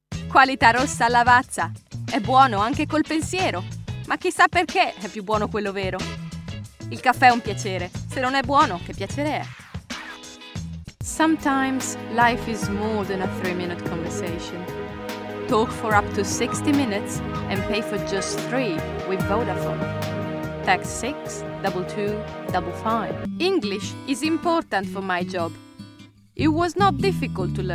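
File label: Italian General; GoLocalise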